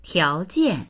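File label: "tiao2jian4", Mandarin Chinese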